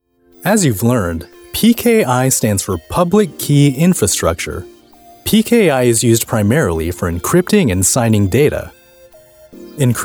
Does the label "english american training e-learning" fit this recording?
Yes